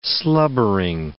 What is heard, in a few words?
"slubbering", english